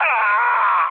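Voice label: damaged pilot, mood low, Polish speech; War Thunder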